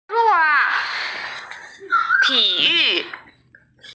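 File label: "tiyu", Mandarin Chinese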